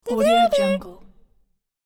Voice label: cute baby dino call; cartoon; cartoon sounds

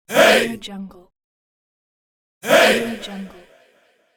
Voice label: small male group shouting "hey"

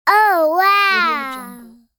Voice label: child saying surprised oh wow

